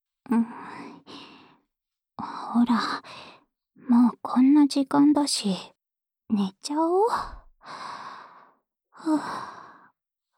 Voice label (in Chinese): 安眠用陪睡循环（右侧）; 桐那的安眠用陪睡循环（右侧）